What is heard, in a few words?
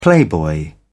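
"playboy", British English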